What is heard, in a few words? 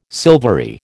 "silvery", American